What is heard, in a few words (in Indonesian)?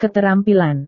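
"keterampilan", Indonesian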